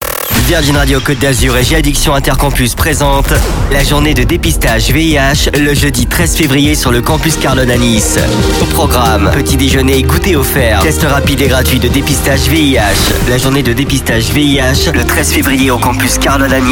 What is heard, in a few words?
Spot radio; VIH G Addiction